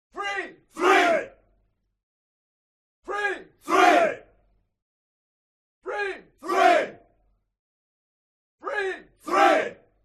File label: HUMAN CROWD Military Shout English Three; smartsound